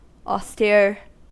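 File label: "austere", American English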